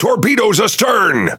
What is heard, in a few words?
Pirate Speech Alarms Torpedo Back; World Of Warships